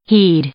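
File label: "heed", English, female; verb; i-ɪ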